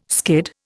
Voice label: "skid", Indian